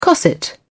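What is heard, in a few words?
"cosset", British English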